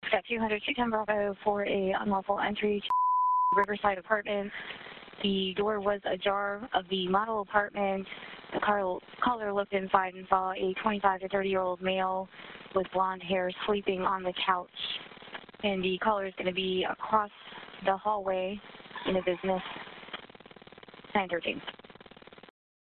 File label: dispatch call; June 14 incident